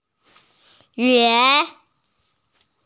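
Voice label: "yun2", Mandarin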